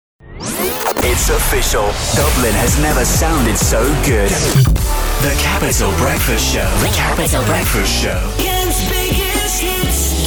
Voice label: Imaging; radio station sound, youtube branding, podcast intros